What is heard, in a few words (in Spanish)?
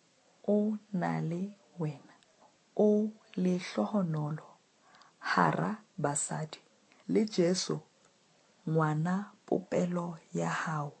ave maria sesotho pausado